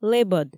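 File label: "лэбӧд", Komi, female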